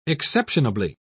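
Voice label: "exceptionably", British English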